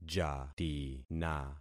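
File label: "jatina", concatenated syllables; Affixing experiment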